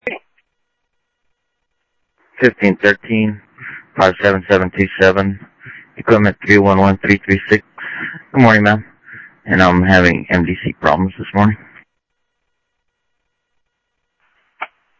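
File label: Sheriff Lake Patrol, scanner audio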